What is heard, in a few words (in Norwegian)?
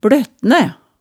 "bløttnæ", hemsedals maalet tale